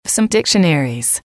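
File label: "some dictionaries", English, female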